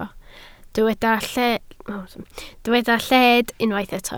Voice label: "lled", Welsh

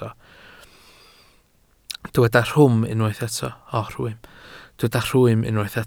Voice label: "rhwym", Welsh

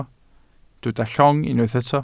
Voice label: "llong", welsh

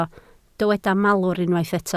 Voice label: "malwr", Welsh

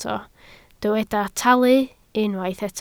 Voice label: "talu", Welsh

